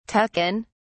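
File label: "tuck in", British English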